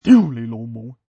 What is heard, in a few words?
Asian Thug